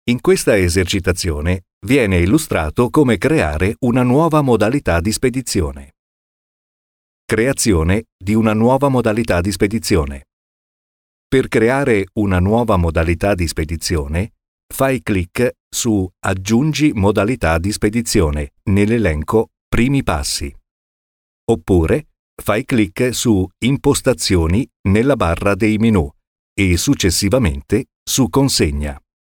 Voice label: eLearnig